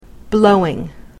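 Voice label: "blowing", English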